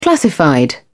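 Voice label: "classified", English, uk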